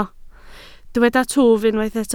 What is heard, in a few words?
"twf", Welsh